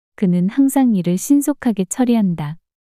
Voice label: 그는 항상 일을 신속하게 처리한다 문장 여자

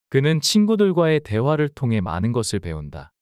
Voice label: 문장 남자; 그는 친구들과의 대화를 통해 많은 것을 배운다

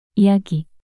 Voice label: "이야기" 단어 여자

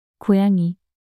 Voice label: "고양이" 단어 여자

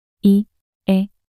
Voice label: "이에" 낱소리 여자

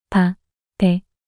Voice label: 바베 낱소리 여자

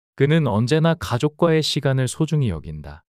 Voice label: 그는 언제나 가족과의 시간을 소중히 여긴다 문장 남자

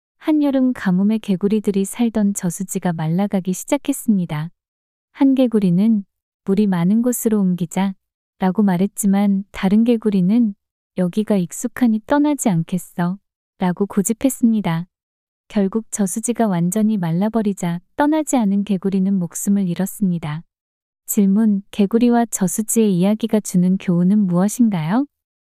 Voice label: 이야기 여자; 개구리와 저수지

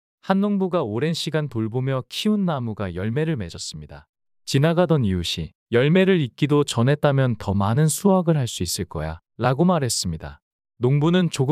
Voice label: 이야기 남자; 나무와 열매

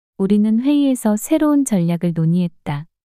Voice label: 문장 여자; 우리는 회의에서 새로운 전략을 논의했다

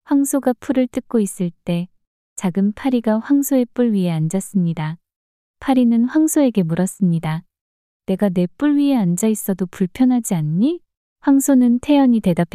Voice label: 이야기 여자; 소와 파리의 교훈

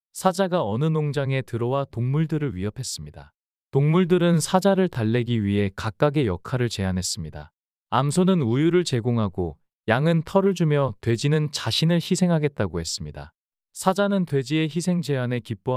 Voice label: 농장에 들어온 사자 이야기 남자

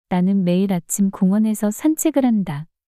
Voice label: 나는 매일 아침 공원에서 산책을 한다 문장 여자

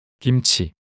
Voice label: "김치" 단어 남자

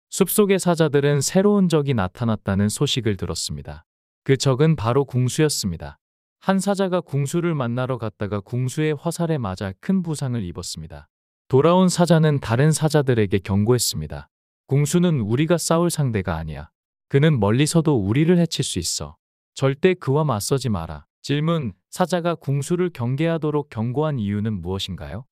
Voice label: 궁수와 사자 이야기 남자

